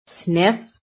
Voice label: "sniff", phonetic

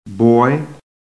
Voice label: "BOY", american; PHONETIC

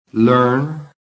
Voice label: "learn", American

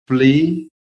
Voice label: "FLEE", american

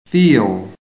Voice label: "FEEL", american; PHONETIC

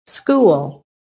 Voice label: "SCHOOL", american

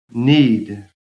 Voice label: "need", phonetic

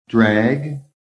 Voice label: "DRAG", american

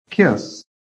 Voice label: "KISS", american

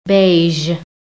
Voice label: "BEIGE", american; PHONETIC

